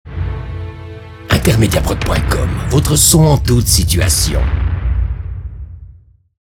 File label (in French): publicite radio reminder; Intermediaprod